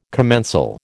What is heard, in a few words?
"commensal", American